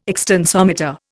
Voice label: "extensometer", Indian

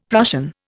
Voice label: "Prussian", Indian; Dictionary

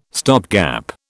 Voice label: "stopgap", British

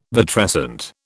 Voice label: "vitrescent", British

